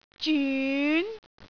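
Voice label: "zyun2", Cantonese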